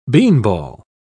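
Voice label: "beanball", English, British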